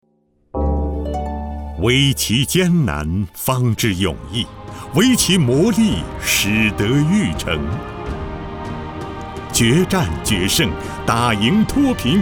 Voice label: 男 专题 政府汇报 大气权威; 阜平脱贫致富建小康汇报片

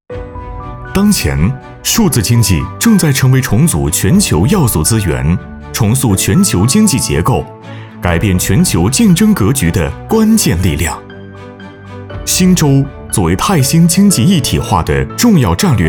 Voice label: 男 专题宣传 大气磁性

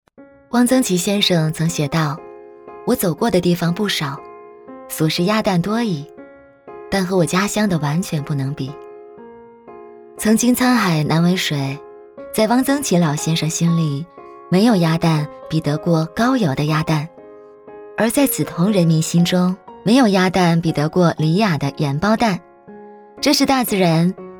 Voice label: 女 自述

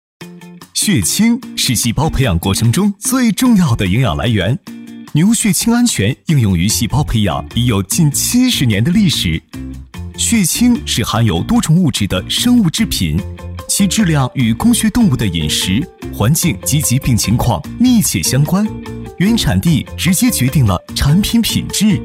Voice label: 男 MG; 血清

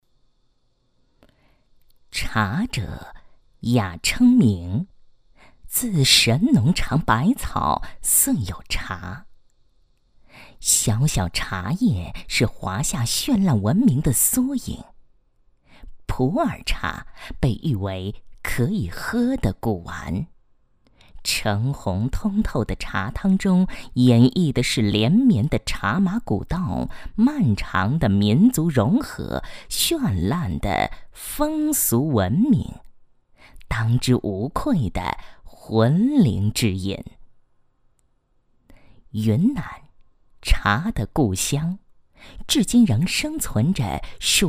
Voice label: 女 专题纪录片, 成熟稳重; 国语女声配音; 茶者

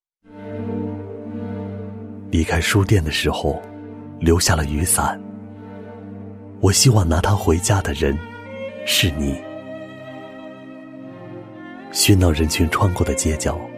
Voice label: 男 独白; 遇见

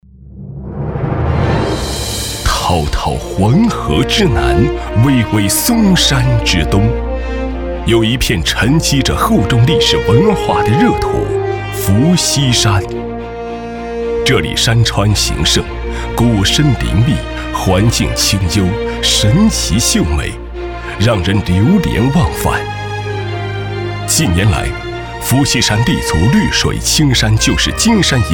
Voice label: 男 大气浑厚; 绘山朗水清伏羲图景

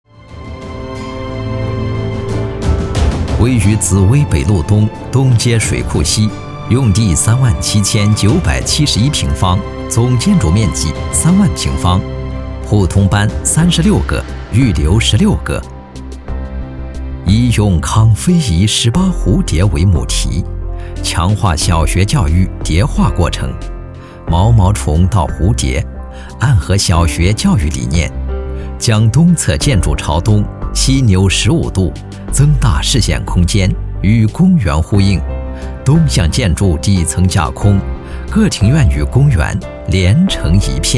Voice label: 男 多媒体建筑规划

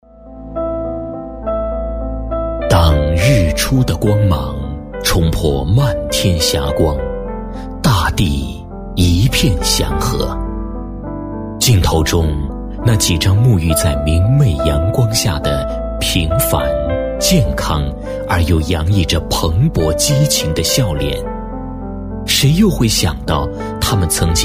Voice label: 男 专题 温情叙述, 国语男声配音, 浑厚专题; 杭州戒毒所

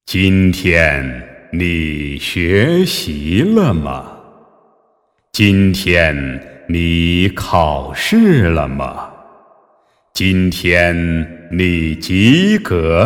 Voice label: 男 人物 灵通佛音

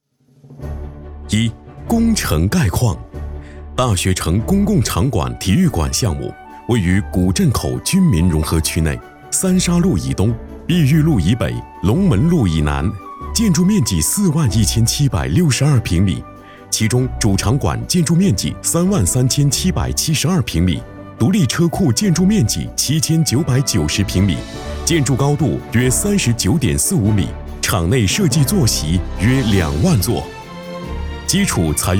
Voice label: 男 大气 工程招标 汇报类

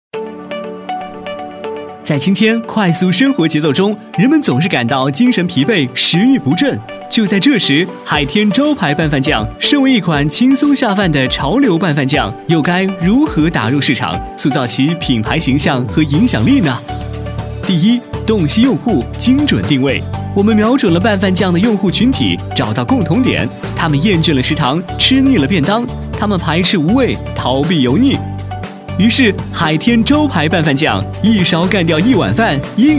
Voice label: 男 飞碟说 招牌拌饭酱; 海天

